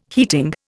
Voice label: "heating", Indian

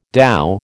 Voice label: "dhow", American

